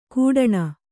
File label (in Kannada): "ಗುಡಾಣ", Kannada